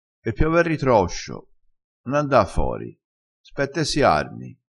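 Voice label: "a ritroscio", Italian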